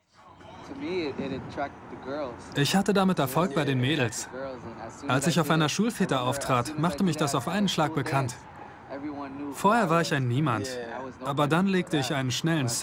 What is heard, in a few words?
voice over; lets dance